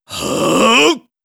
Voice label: voice monster

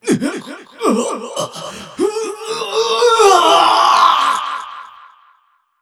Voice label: voice monster

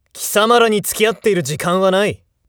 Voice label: jakigan win, voice

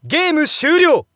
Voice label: Narration Game Over; Hanyou

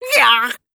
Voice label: oldman damage, voice

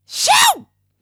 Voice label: voice berserk

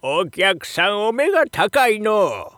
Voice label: shop oldman, voice

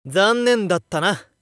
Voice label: Narration LOSE; Hanyou

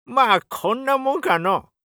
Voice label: oldman win

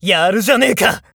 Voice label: nekketsu damage, voice

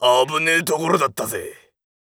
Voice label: macho win, voice

